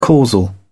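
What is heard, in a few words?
"causal", British English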